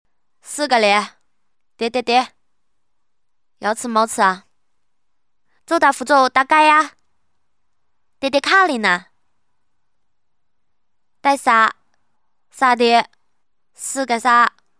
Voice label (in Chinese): 女 棋牌 温州话